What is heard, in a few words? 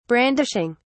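"brandishing", British English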